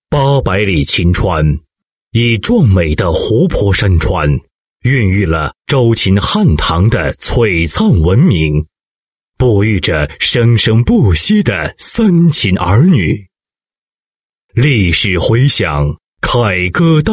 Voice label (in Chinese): 男 专题 安全食品, 国语男声配音